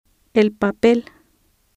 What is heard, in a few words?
"paper", Spanish